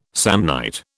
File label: "Samnite", British